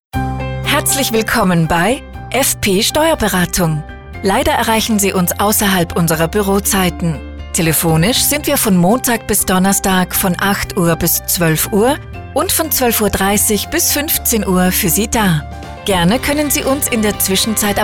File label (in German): Nachtansage; FP Steuerberatung; telefonansagen sonstige